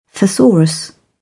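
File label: "thesaurus", British English; AmericanBritish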